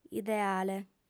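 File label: "ideale", female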